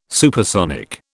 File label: "supersonic", British